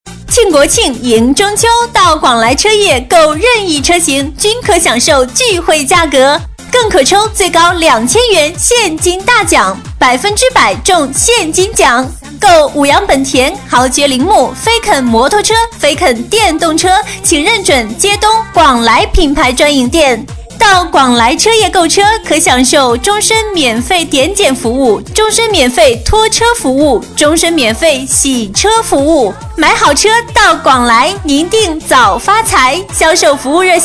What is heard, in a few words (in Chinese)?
女声庆国庆迎中秋